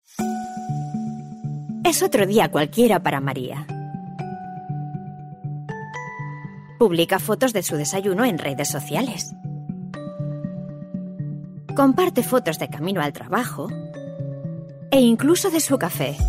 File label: female, advertising